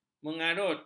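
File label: "mengarut", Malay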